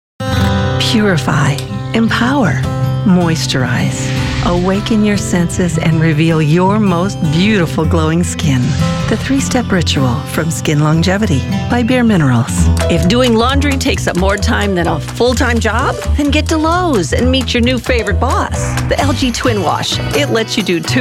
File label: Commercials